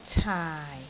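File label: "caai4", Cantonese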